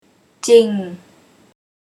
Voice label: "Jing", Thai

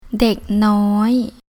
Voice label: "Dèk Nói", Thai

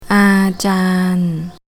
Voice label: "Aa-jaan", Thai

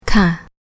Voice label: "Kâ", Thai

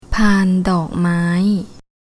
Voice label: "Paan Dòrk Mái", Thai

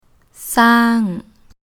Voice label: "สร้าง", Thai

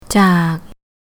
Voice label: "Jàak", Thai

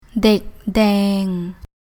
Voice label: "Dèk Dâeng", Thai

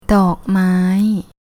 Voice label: "Dòrk Mái", Thai

